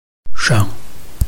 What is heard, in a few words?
"sheng5", Chinese